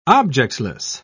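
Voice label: "objectless", British English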